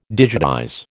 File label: "digitize", American